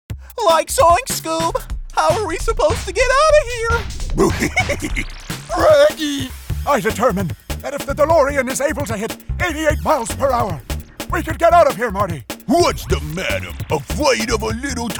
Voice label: Impressions